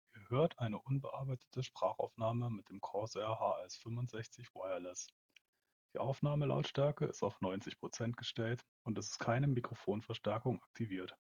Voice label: HS65 Wireless normal; Corsair

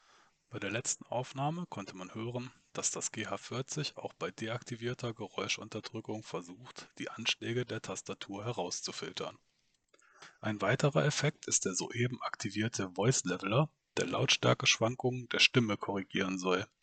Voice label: MSI GH40 Voice Leveler on

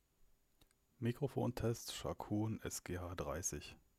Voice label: mic; SGH30

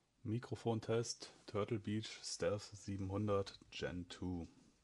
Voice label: Stealth 700 G2

